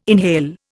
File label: "inhale", Indian